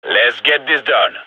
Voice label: radio lock n load; vo; gign